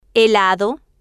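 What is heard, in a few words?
"helado", Spanish, female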